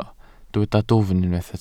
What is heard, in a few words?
"dwfn", Welsh